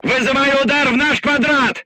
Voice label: voice message art command oneself, crew tanks russian